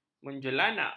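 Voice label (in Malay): "menjelanak", Malay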